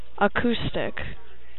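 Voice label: "acoustic", USA, female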